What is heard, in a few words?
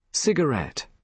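"cigarette", British English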